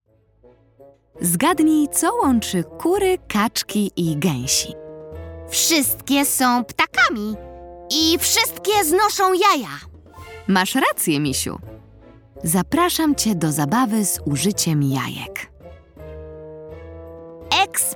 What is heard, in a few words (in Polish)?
narracja; czasopismo mis; lato; eksperymet rozmowa